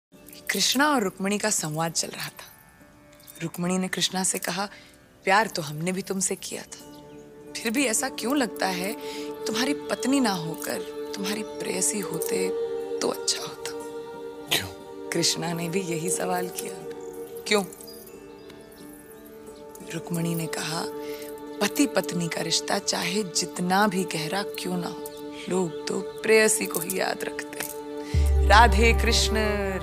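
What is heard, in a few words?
dialogue hindi ringtone; bajirao mastani dailouge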